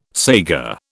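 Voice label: "saiga", British English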